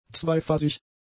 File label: "zweiphasig", German; adjectives